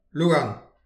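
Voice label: "louarn", Breton; petit precis de prononciation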